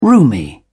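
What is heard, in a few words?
"roomy", English, British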